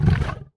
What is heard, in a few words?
Zombie